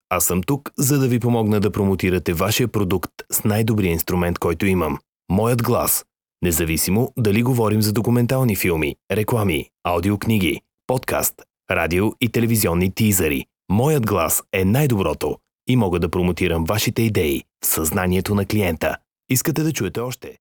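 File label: Bulgarian General; GoLocalise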